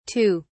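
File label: "tue", British English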